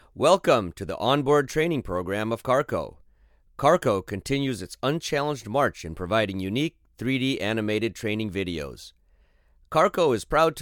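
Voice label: explainer english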